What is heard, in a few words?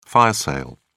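"fire sale", British English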